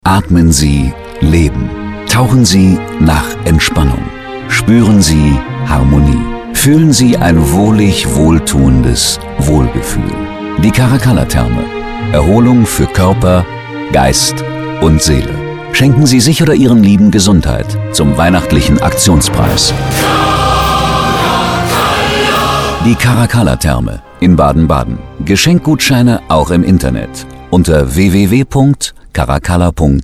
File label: Spot; Caracalla